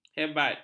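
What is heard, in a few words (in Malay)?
"hebat", Malay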